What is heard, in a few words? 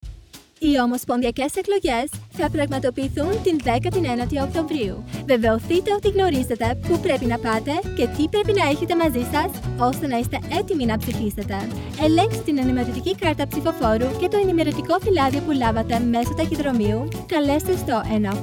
ELECTION DAY greek